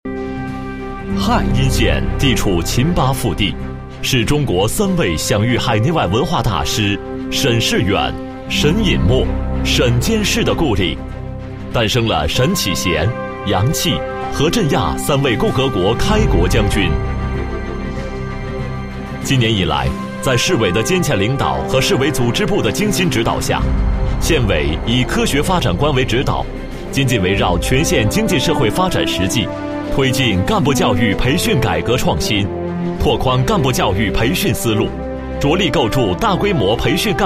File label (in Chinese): 男2 政府宣传, 国语男声配音; 靓仔专题